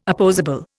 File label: "opposable", Indian